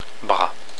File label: "bras", French